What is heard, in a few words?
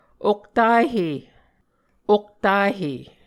"oktahe", Muscogee